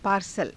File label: "paarsala", Hindi